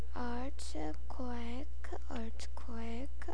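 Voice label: "earthquake", spoken word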